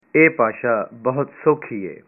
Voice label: punjabi this language is very easy